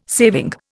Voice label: "saving", Indian